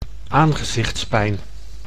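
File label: "aangezichtspijn", Dutch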